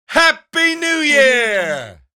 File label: man yelling happy new year